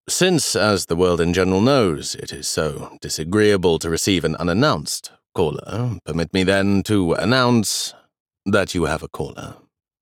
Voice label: Announcing a caller